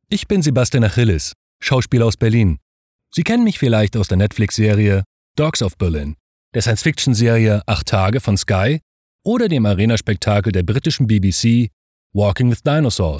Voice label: Introduction German